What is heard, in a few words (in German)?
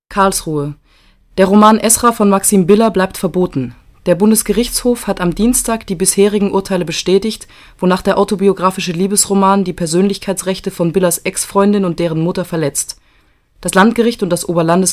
nachrichten